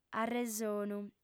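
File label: "arresonu", lingua sarda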